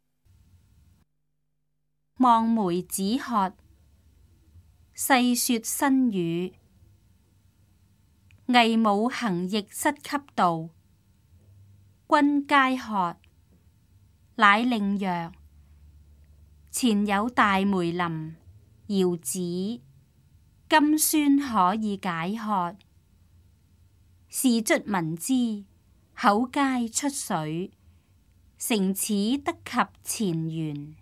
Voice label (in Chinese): cantonese; 望梅止渴; 粵語